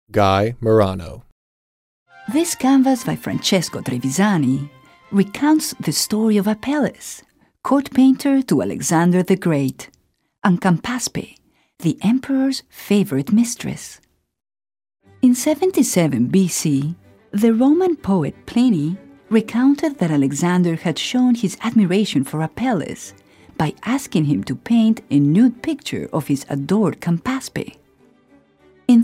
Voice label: English Narration